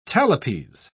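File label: "talipes", British English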